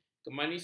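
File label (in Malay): "kemanisan", Malay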